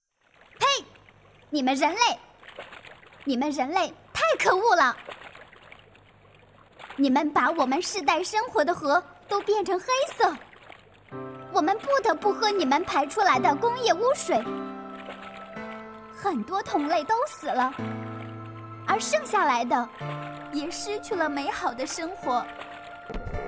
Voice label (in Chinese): 环保鱼感情变换效果